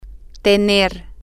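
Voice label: female, spanish; to Have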